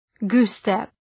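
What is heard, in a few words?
"goose-step", English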